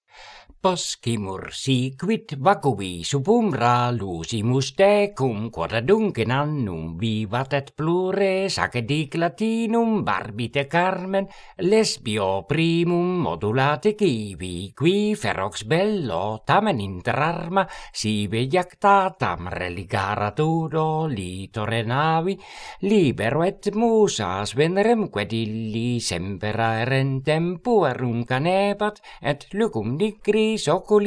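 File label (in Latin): Horatii carmina quae voce canora pronuntiat; Poscimur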